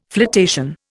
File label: "flotation", Indian